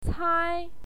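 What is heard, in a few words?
"cai", Mandarin Chinese, first tone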